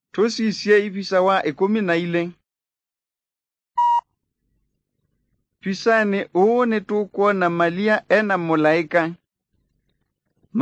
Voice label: kikamba drama; good news; bible